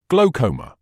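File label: "glaucoma", British English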